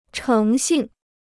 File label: "诚信", Chinese